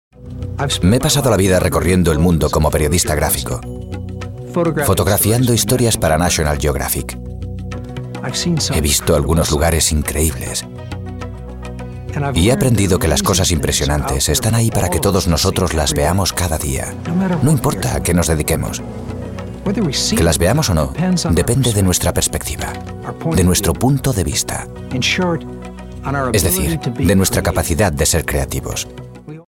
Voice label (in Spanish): locutor español